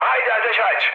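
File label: attack position, mood high, Turkish; speech; War Thunder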